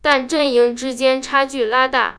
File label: synthesized; fast speech2; AISHELL3